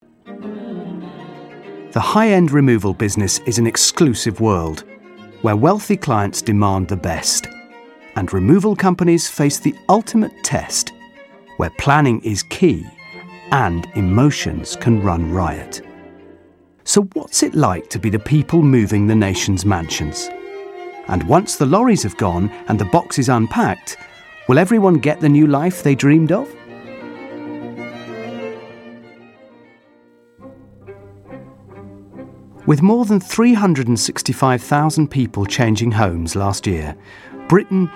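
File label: documentary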